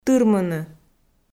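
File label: "тырмыны", Komi, female; audio dict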